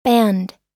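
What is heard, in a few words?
"banned", US English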